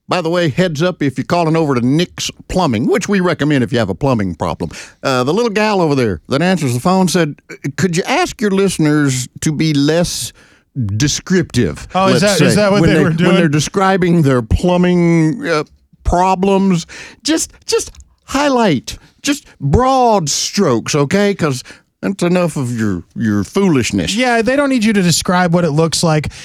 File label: RADIO AD; nicks plumbing radio ads